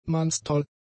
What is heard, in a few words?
"mannstoll", German; adjectives